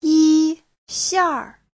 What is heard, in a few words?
"yi1 xiar4", Chinese